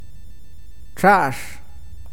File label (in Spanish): verde, awakateko